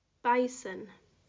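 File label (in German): "Beißen", German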